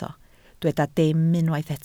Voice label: "dim", Welsh